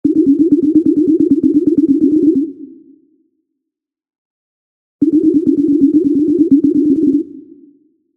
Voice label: Audiotag male